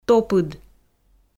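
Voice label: "топыд", Komi, female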